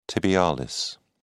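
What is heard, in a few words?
"tibialis", British English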